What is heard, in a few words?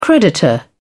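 "creditor", British English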